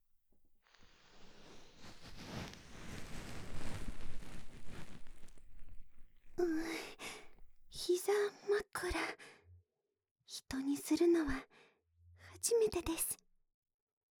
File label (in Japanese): 【右】こしょこしょ囁き(始), ASMR